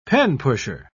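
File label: "penpusher", English, British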